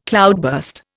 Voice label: "cloudburst", Indian

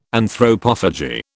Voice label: "Anthropophagy", British

